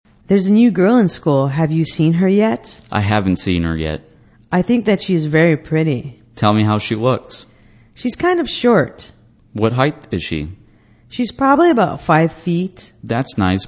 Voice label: english conversation Describing People